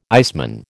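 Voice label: "iceman", American English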